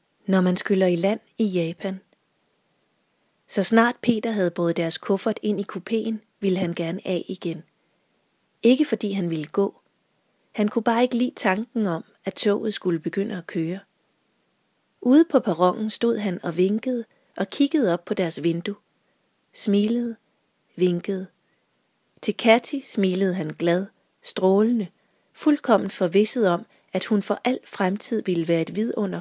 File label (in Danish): speak indlæsning